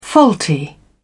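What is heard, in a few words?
"faulty", British English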